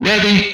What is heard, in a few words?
"Ready", Speech